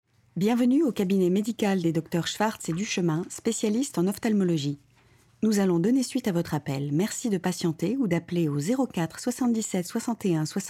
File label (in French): Répondeur, voix